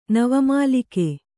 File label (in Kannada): "ನವಮಲ್ಲಿಕೆ", Kannada